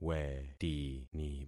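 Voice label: "wetini", concatenated syllables; Affixing experiment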